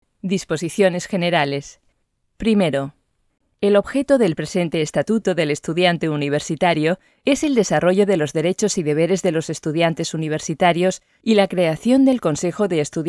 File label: female